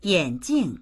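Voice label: "yan3jing4", Mandarin Chinese; 眼镜; めがね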